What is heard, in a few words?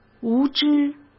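"无知", Mandarin Chinese; hsk; chinese-flashcards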